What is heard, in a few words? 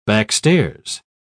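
"backstairs", British English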